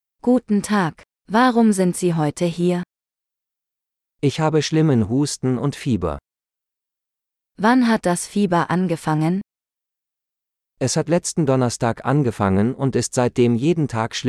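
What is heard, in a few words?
A Doctors Appointment - Dialogue, German; de-en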